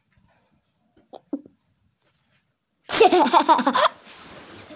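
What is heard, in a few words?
"tian e", Mandarin Chinese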